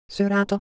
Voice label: Japanese Shape, ideophones